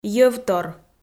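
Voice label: "йӧвтор", Komi, female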